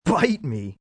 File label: Bite Me, Attitude, male: 'Bite me', angry, annoyed, (human voice speaking)